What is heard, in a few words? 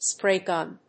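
"spray gun", English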